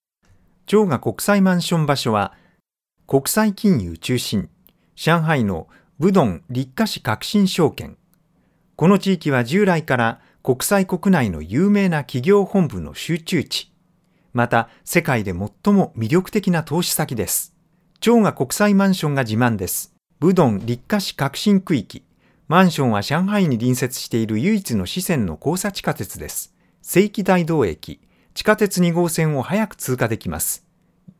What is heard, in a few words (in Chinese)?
日语男,宣传片,自然